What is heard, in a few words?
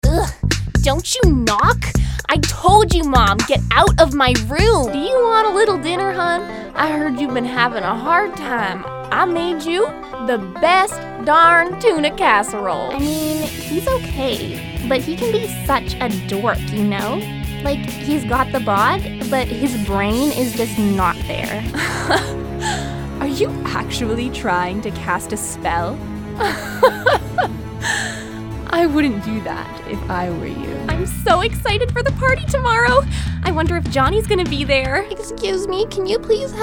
Animation VO